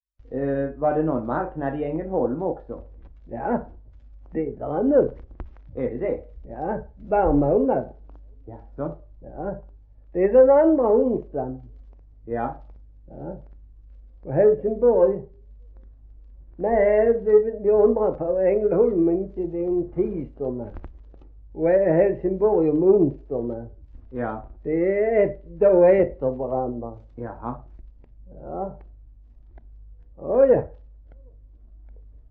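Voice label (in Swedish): Gråmanstorp Skåne, dialekter svenska sagor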